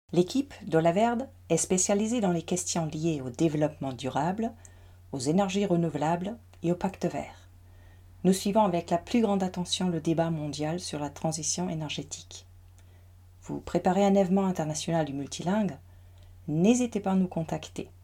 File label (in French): voz FR